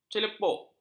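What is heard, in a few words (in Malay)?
"celepuk", Malay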